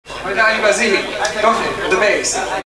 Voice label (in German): Ansage